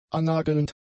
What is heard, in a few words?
"annagelnd", German; adjectives